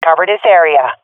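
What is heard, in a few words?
request hold, swat female; vo; Counter Strike Model Pack; CS:GO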